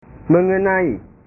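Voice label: "mengenai", Indonesian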